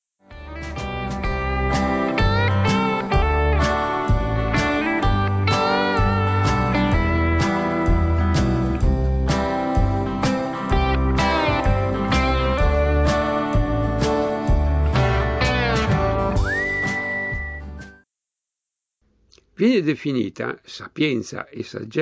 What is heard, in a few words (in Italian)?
dialetto milanese